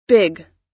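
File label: "big", US English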